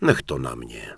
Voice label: Human Male Yes; wowpreklad; czwow; HumanVocalMale